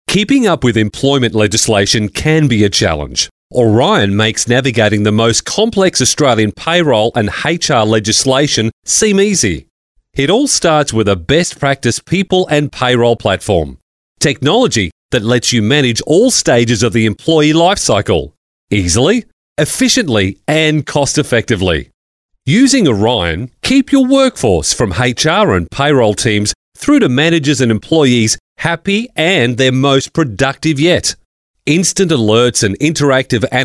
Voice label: male talent corporate